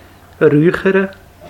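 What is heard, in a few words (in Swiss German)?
"rüüchere", Swiss German Solothurnisch Wasseramt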